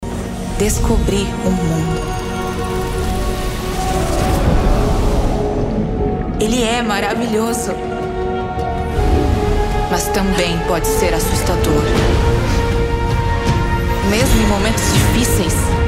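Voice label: commercial ad young epic anime female fighter female brazilian portuguese; teamliquid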